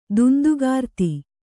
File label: "ದುಂದುಗಾರ್ತಿ", Kannada